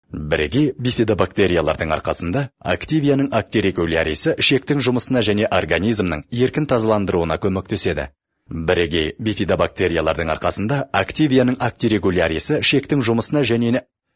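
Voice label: kazakh male commercial; voice demos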